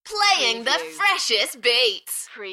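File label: British female Playing the freshest beats Enthusiastic; beanstalk audio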